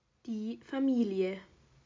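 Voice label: "Familie", German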